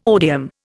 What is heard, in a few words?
"odium", Indian